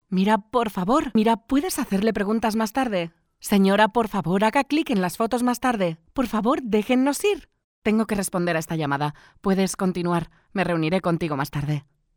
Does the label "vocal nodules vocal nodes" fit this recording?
No